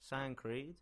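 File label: "Sancreed", English; place-names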